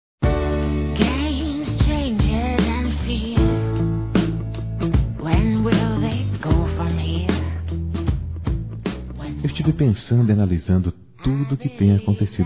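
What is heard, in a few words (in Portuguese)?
SE LIGA ALGUEM TE PAQUERA, masculino; Telemensagens; Conquistas Cantadas paqueras; Telemensagens 2017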